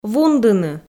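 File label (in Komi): "вундыны", Komi-Zyrian, female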